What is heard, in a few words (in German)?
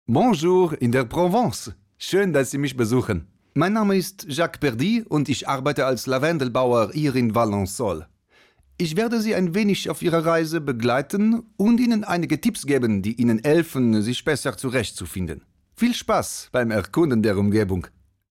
typ franzose